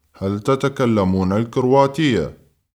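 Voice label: Arabic, male, Sprechen Sie Kroatisch?; هل تتكلمون الكرواتية؟; Arabisch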